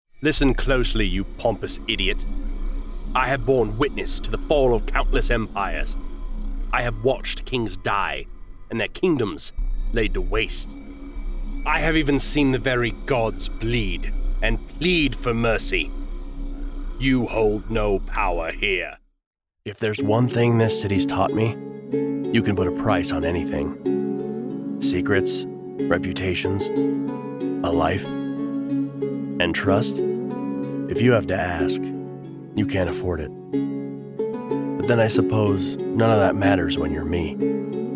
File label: Character Voiceover